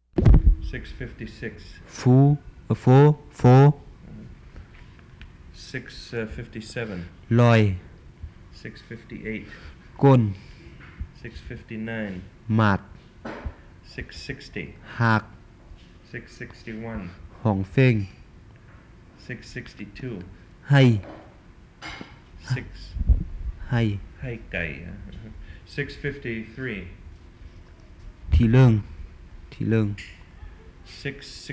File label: Tai Nua Long List Comparative list; Gedney